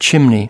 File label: "chimney", us